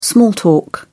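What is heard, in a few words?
"small talk", English, British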